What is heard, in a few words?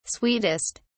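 "sweetest", British English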